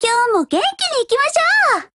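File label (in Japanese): "hello", Japanese; MP5Mod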